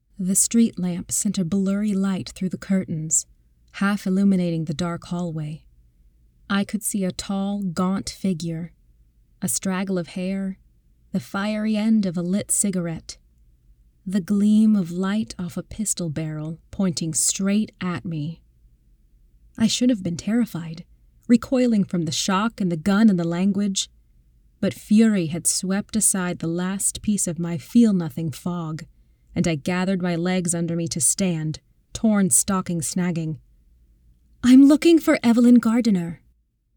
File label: audiobook sample; "The Alice Network" (audiobook demo) - thrilling confrontation, young American woman, older grizzled French woman